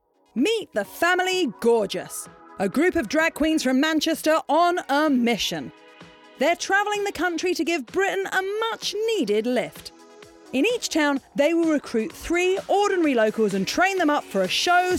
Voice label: Narration

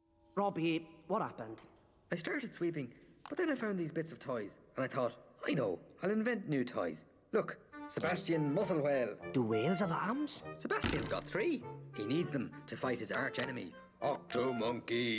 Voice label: Character; Natural Accent: Irish

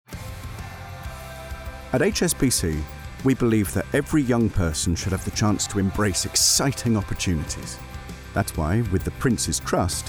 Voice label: Commercial Montage

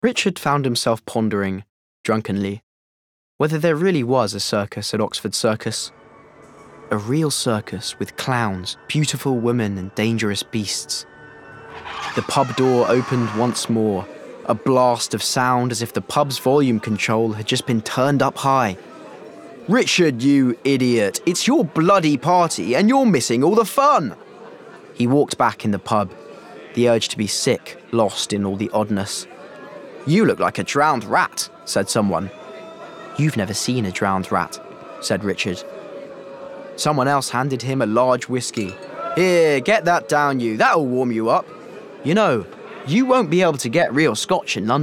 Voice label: Book Read; Neverwhere

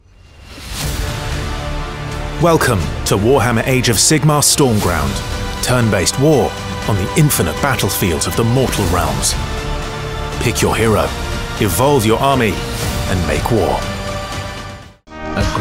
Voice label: Commercial Reel

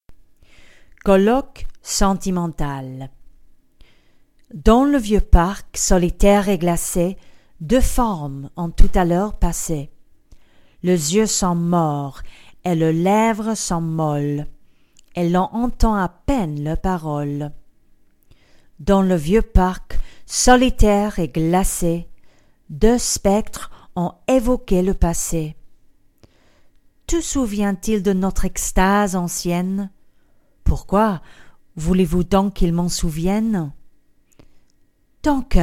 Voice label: French

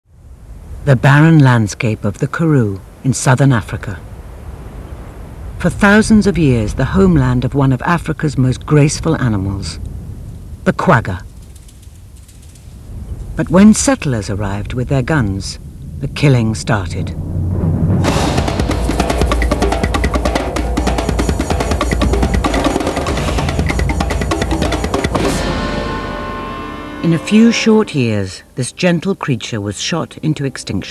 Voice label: Narration

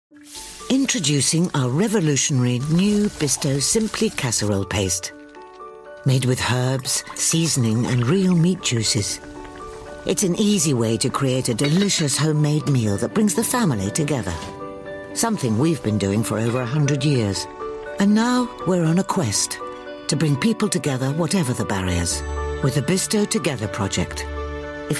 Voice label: Commercial

